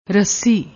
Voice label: "rassi", Punjabi